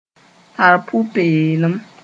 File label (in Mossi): "tar pʋ-peelem", moore